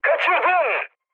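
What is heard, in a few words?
Turkish bomb failure, mood med, speech; War Thunder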